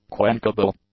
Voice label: "quenchable", English; adjectives